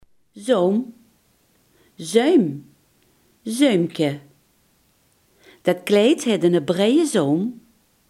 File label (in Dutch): "zoom", limburgse